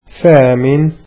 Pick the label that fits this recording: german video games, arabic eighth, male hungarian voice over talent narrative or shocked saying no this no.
arabic eighth